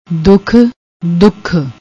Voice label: "dukh", Punjabi; ਦੁੱਖ